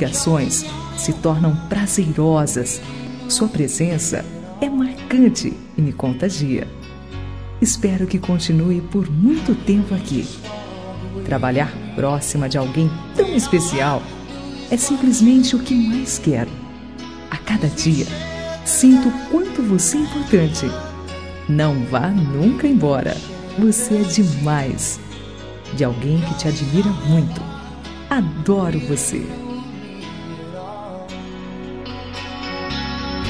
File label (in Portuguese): ROM CONQUISTA COLEGA TRABALHO FEM; Telemensagens; Conquistas Cantadas paqueras; Telemensagens 2017